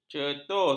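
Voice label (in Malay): "cetus", Malay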